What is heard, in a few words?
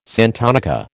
"santonica", American